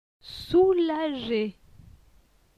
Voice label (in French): "soulage", francais